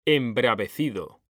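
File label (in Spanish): "embravecido", Spanish; mekanta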